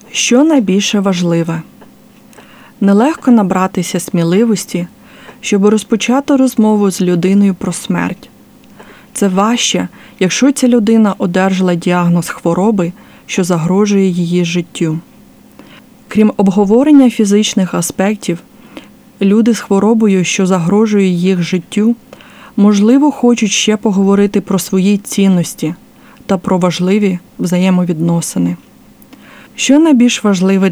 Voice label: Palliative Care Ukrainian What Matters Most